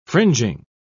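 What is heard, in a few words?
"fringing", British English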